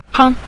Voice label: "pang", Mandarin; pig